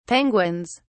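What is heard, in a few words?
"penguins", English, British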